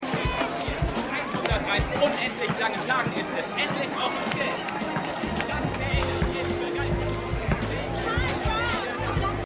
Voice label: Network Reporter; Winning Time